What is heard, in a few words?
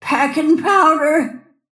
attack pain; snapfire